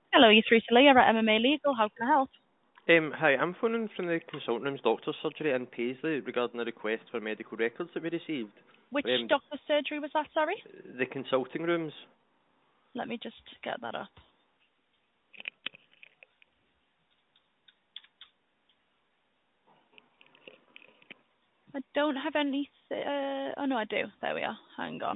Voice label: call recording